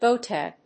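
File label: "boat deck", English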